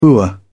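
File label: "boor", British English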